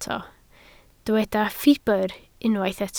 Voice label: "ffibr", Welsh